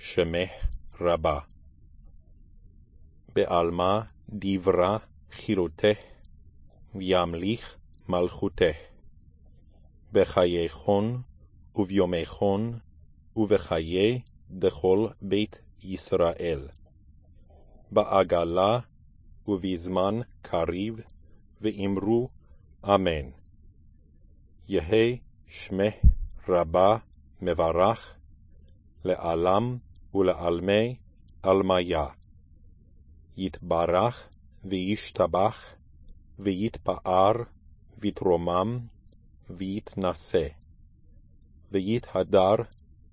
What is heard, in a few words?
Chatzi Kaddish spoken